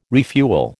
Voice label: "refuel", American

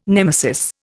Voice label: "nemesis", Indian

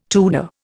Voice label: "Tudor", Indian

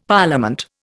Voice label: "Parliament", Indian; Dictionary

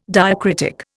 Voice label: "diacritic", Indian

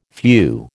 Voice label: "phew", American

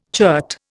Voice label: "chert", Indian

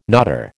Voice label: "knotter", American

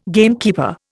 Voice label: "gamekeeper", Indian

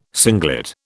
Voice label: "singlet", British

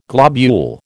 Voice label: "globule", American

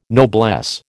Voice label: "noblesse", American

